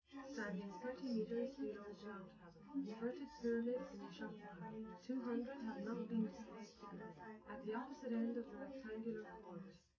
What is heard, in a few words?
female